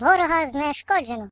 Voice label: "Enemy down", voices; hedgewars; HedgewarsMobile; Default uk

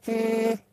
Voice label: "Hmm", Robot voice; hedgewars

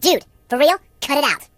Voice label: Cut it out, Surfer; hedgewars

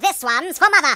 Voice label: Watch this, British

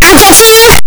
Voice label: "I'll get you", singer voice

